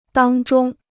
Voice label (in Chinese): "当中", Chinese